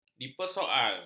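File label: "dipersoal", Malay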